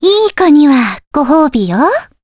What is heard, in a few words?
Vox Skill jp; Cassandra; kingsraid